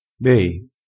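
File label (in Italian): "be", vocabolario dialettale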